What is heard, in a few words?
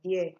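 "dere", Nigerian Pidgin, female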